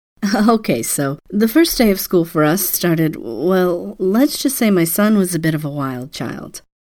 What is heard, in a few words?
English Sample